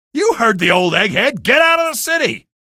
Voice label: squad flee, male; video games; npc; source engine; episodic; episode 1